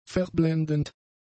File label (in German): "verblendend", German; adjectives